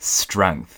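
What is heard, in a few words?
"strength", US English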